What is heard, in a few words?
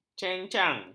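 "cenceng", Malay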